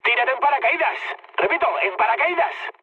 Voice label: bailout, mood med, speech; War Thunder